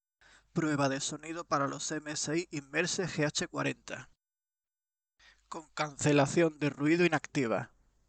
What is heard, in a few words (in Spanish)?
MSI Immerse GH40 ENC sin ANC